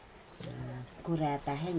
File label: dog female, Aneityum